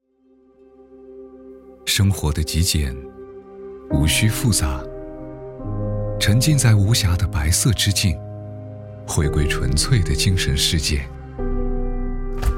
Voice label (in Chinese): 男 广告 厨电 冰箱; 卡萨帝光年套系冰箱